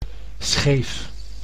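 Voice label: "scheef", Dutch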